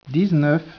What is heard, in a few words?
speech, French